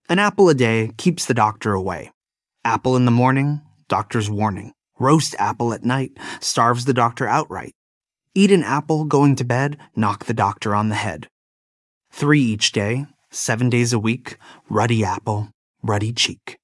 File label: male US; An Apple a Day